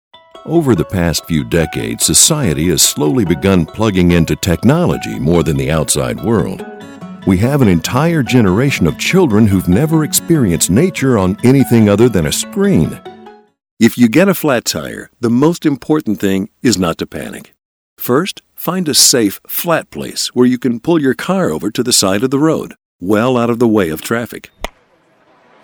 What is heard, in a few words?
E Learning